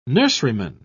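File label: "nurseryman", English, British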